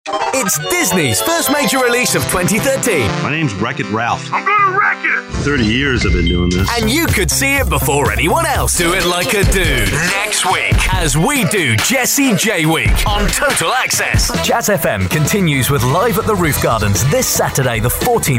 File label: imaging